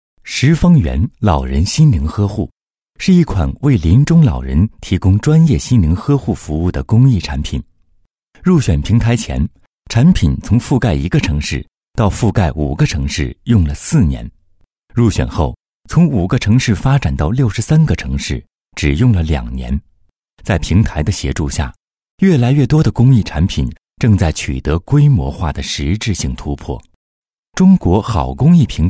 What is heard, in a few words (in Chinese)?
公益专题